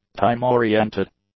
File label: "time-oriented", English; adjectives